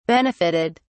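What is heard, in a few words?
"benefitted", English, British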